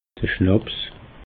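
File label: "schnaps", Alsatian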